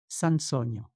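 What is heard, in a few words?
"sansonha", Occitan Languedocien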